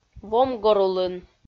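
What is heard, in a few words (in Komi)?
"вомгорулын", Komi, female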